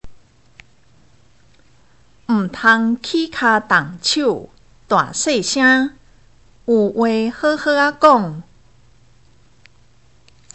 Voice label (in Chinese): (閩); 生活常規